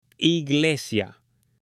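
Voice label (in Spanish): "iglesia", spanish; AppLenguaje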